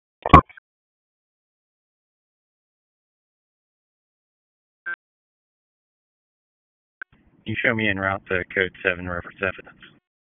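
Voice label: Dispatch 1, P25; WSO DISP 1; Wake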